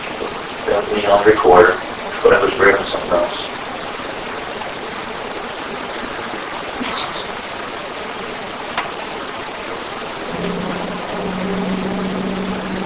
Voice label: bone chilling voice